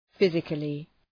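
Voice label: "physically", English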